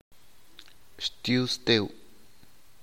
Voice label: thank you very much 2nd person singular, teotitlan; informal